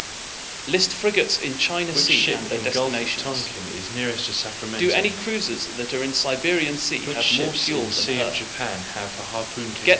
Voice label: mixture room C SNR10